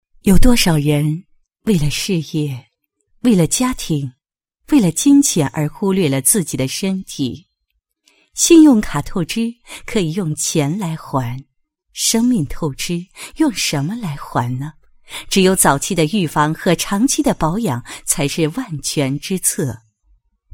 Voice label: 女 广告 健康广告片 女深情, 国语女声配音; 全能配音

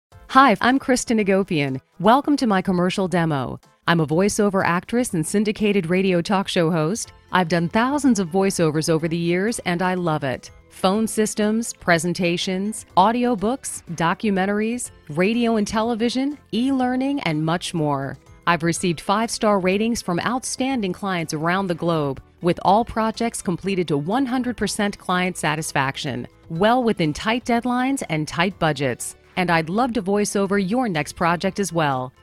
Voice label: 外籍英女 自我介绍

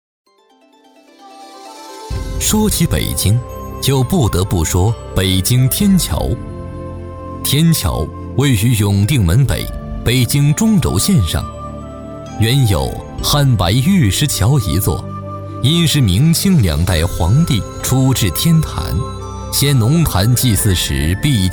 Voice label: 男 纪录片风格 讲述 成熟

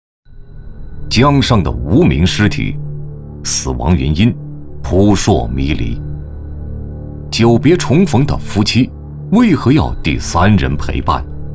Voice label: 男 栏目 案件; 类似天网

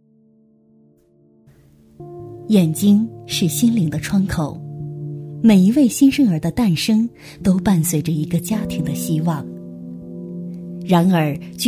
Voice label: 女 专题; 眼睛

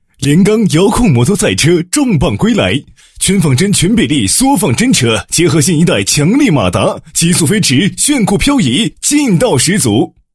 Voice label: 男 产品介绍 遥控摩托赛车

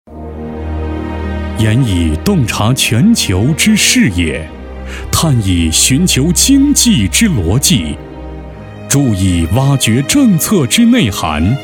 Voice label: 男 专题 大气稳重 经济专题

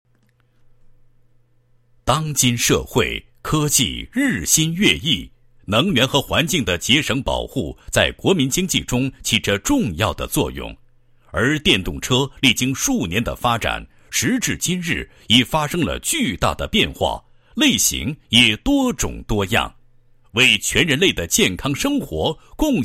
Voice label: 男 企业 宣传; 宏途大运车业有限公司

